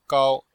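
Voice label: "gao1", Mandarin Chinese; Cours de Chinois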